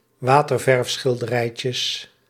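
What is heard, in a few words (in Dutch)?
"waterverfschilderijtjes", Dutch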